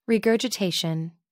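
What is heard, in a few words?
"regurgitation", British English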